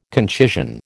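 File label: "concision", American English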